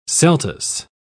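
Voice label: "celtuce", British English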